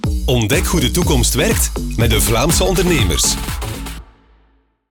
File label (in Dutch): vlaamse ondernemers male; studio sonart